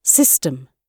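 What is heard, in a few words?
"system", British English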